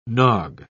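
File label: "nog", British English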